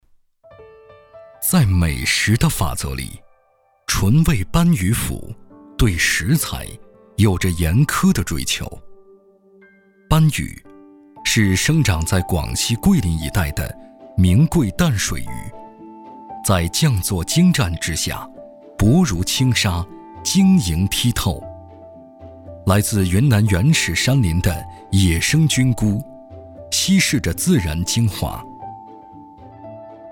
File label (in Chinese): 舌尖风格